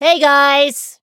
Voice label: chant; soira